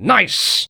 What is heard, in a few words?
Civilian cheers